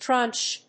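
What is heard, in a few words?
"trunch", English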